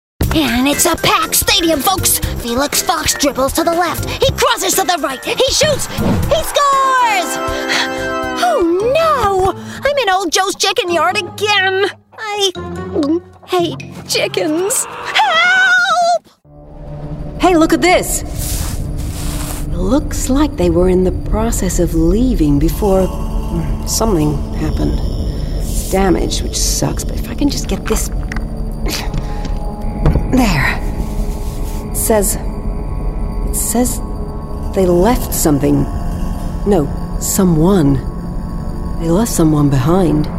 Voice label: American Accent Characters

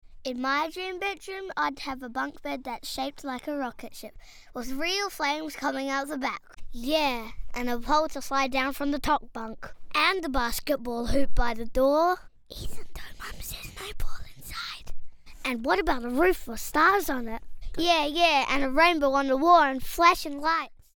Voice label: Kids Promo Faster Read; Mitre 10

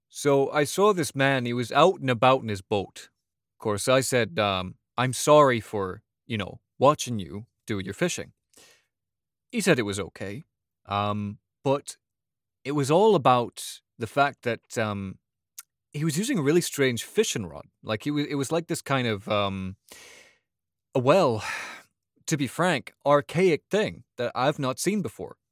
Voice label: Canadian

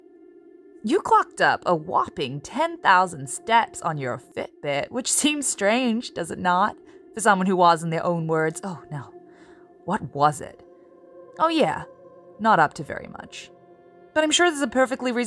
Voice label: US character

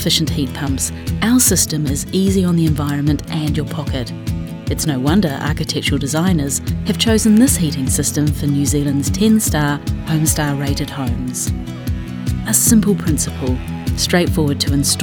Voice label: Warmth NZ Warm

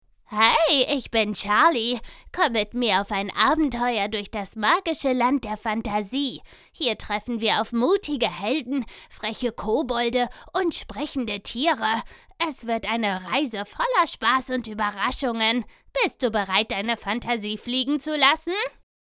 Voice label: Animation

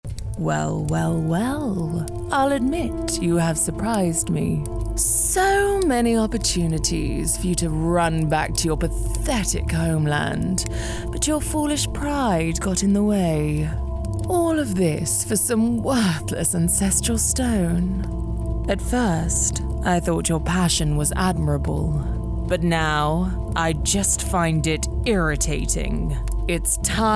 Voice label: Evil Queen

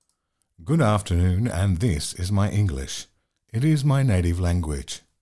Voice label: English

